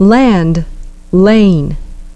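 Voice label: "land" "lane", English pronunciation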